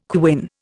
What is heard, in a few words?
"quin", Indian